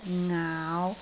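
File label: "ngaau5", Cantonese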